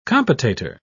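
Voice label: "compotator", English, British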